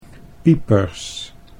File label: "piepers", Dutch